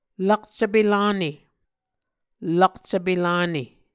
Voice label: "lakcvpe lane", Muscogee